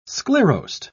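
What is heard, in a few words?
"sclerosed", British English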